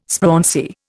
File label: "sprauncy", Indian